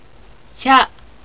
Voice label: "sha", Japanese